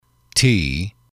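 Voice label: "tea", English, male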